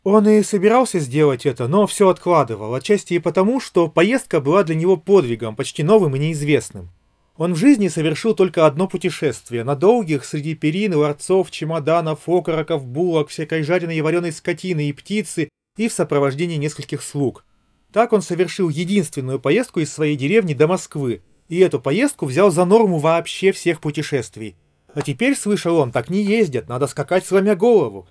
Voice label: Recorder; запись на профессиональный рекордер; Honor Choice Bluetooth Speaker Mini